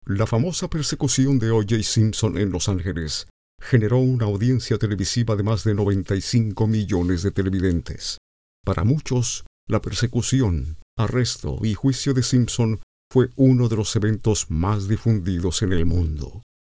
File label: spanish latin american documentaries